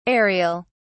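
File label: "areal", English, British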